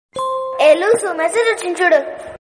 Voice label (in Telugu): hey loosu message vachindi chudu sound telugu notification